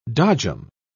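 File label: "dodgem", British English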